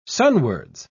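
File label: "sunwards", English, uk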